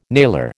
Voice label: "nailer", American

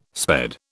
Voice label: "sped", British

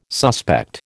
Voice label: "suspect", American

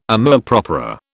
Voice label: "amour propre", British

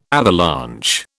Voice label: "avalanche", British

